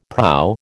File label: "proa", American